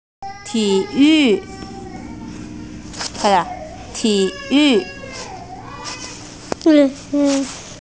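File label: "ti3yu4", Mandarin Chinese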